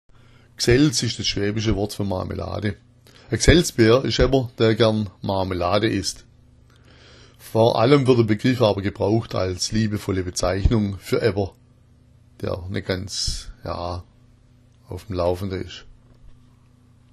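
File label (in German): "gsaelzbaer", schwäbisch; Gsälzbär; Gsälz ist das schwäbische Wort für Marmelade, ein Gsälzbär ist jemand der gern Marmelade isst.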